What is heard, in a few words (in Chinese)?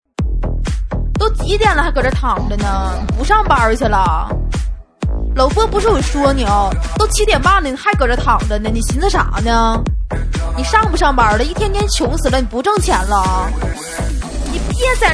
个性搞笑起床